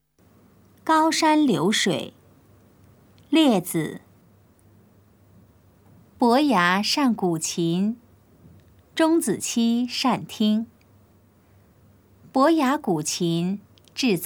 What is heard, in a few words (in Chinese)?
putonghua; 高山流水; 普通話